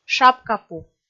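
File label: "шапкапу", Komi, female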